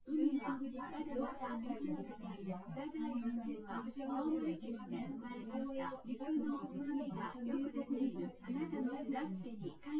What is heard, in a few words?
female; SiSEC08; SiSEC underdetermined